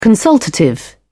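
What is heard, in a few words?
"consultative", British English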